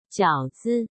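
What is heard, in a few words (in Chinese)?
"饺子", Chinese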